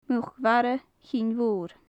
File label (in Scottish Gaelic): "muc-mhara chinnmhoir", Gaelic; Faclan Nàdair